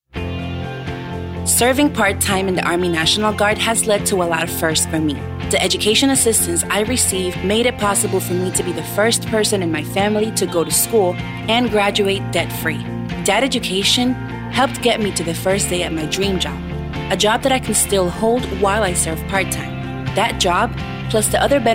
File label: Radio English